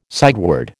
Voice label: "sideward", American

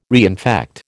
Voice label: "reinfect", American

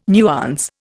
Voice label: "nuance", Indian

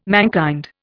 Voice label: "mankind", Indian

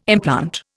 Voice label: "implant", Indian

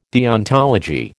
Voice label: "deontology", American

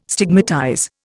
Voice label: "stigmatize", Indian

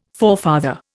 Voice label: "forefather", Indian

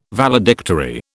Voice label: "Valedictory", British

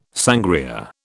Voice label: "sangria", British

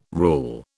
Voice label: "rule", British

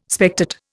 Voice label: "spectate", Indian

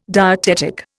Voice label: "dietetic", Indian

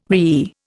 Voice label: "whee", Indian

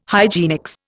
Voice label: "hygienics", Indian

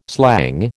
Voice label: "slang", American

